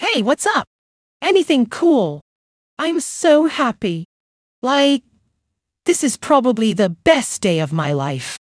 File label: cheerful